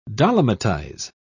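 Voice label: "dolomitize", British English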